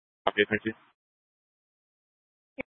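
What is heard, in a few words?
Incident Tac 3, Scanner audio recording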